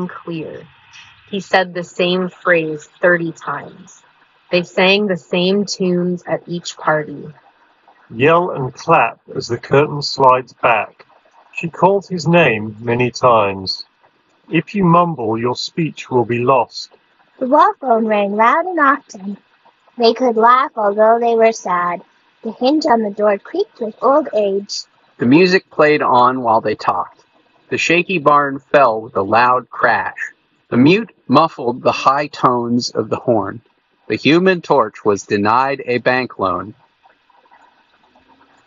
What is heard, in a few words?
Street microphone sample; Samsung Galaxy Buds FE